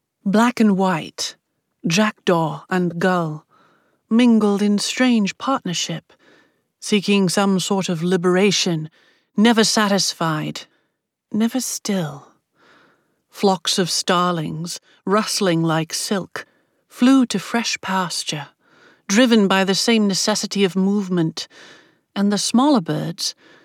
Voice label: Accent Demo English Received Pronunciation